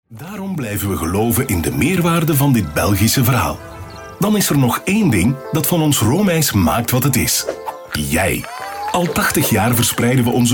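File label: Flemish, male, commercial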